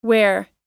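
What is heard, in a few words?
"where", English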